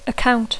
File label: "account", English